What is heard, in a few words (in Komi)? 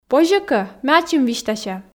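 позьӧ кӧ ме ачым висьтася, Komi, female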